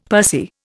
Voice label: "pursy", Indian; Dictionary